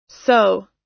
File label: "sew", us